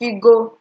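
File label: "figo", Nigerian Pidgin, female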